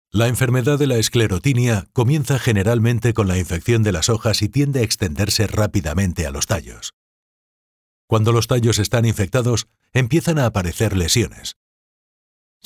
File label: spanish documentaries